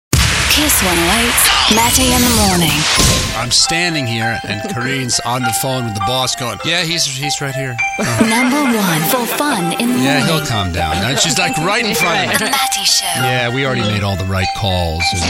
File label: imaging accent